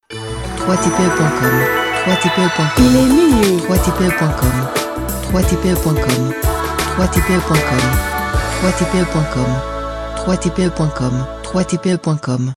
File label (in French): top horaire voix femme minuit; top horaire voix femme avec une annonce musicale heure par heure; pack top horaire voix femme; pack jingle